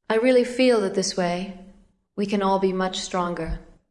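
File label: VoiceActing Spanish, female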